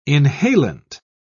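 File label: "inhalant", British English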